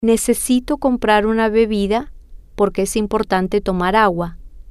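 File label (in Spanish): Necesito Comprar Bebida Importante Tomar Ague, spanish, female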